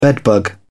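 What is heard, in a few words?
"bedbug", British English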